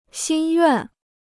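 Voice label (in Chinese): "心愿", Chinese; xīn yuàn; souhait; désir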